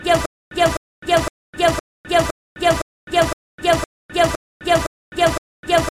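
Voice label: Vietnamese South head and shoulders female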